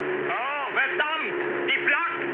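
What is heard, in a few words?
German damaged aa mood low, speech; War Thunder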